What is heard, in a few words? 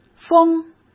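"疯", Mandarin Chinese; chinese-flashcards; hsk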